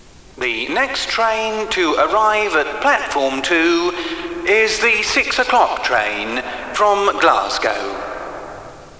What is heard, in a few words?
glasgow